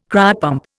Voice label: "cryopump", Indian